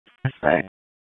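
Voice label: Police Southeast District Dispatch, p25; Raleigh; wake p25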